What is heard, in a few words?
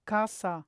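voiceless alveolar medial, polish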